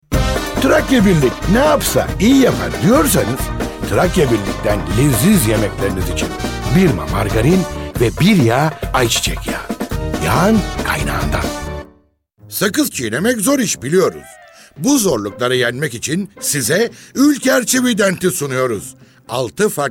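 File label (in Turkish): turkish male commercial; voice demos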